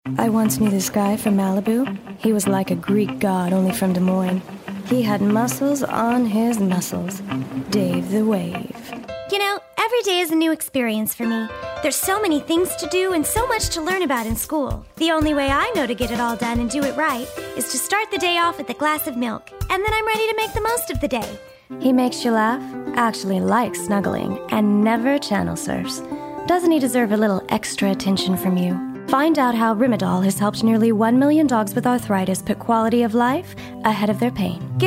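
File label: Commercial voice-over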